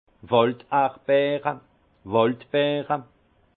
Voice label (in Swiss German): "walderbeer", alsace; fraise des bois; vin